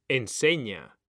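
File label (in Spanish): "enseña", Spanish; mekanta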